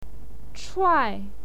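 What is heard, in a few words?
"chuai", Mandarin Chinese, fourth tone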